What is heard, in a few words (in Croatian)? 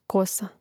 "kosa", Hrvatski; Hrvatski mrežni rječnik; Mrežnik